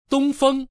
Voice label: east, voice man